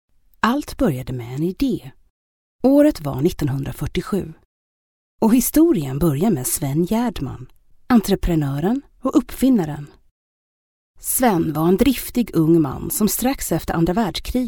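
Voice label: swedish female narration; voice demos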